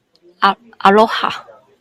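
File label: "aloha", Taiwanese, female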